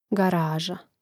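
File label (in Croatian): "garaza", Hrvatski; mreznik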